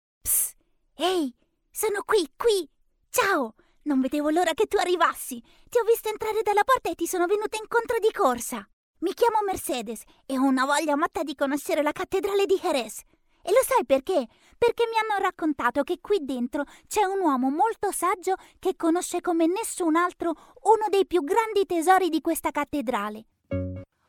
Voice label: female Italian and Spanish Venezuelan Italian animation; audiobook